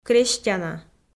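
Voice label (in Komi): "крестьяна", Komi, female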